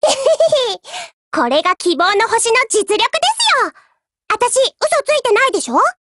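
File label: WIN Japanese, Voice; GF Alarm; BrenTen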